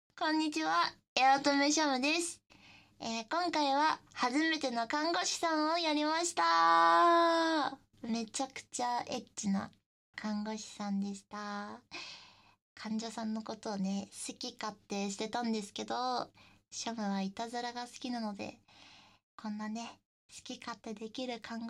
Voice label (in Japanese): フリートーク; 病院の優しそうな看護師さんは実は寸止め大好きなドS痴女でした ～身動きが取れない俺と中出しセックスしちゃいます～